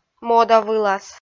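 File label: "мода вылас" Komi, female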